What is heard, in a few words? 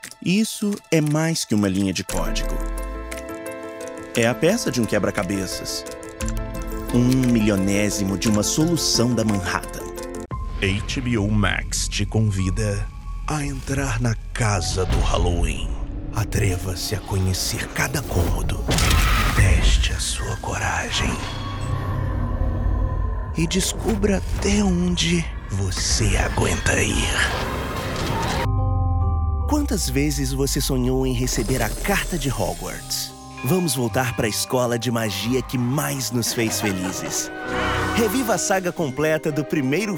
portuguese brazilian promos